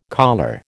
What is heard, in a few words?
"colure", American